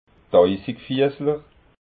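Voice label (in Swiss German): "tauisigfiassler", Alsatian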